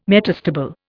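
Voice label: "metastable", English, Indian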